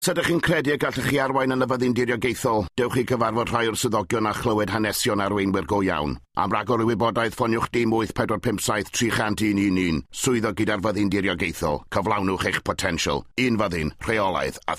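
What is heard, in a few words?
welsh language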